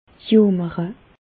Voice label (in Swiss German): "jomere", Bas Rhin; gémir; medecine